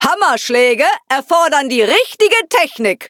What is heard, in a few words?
loading, de